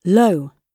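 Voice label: "low", British English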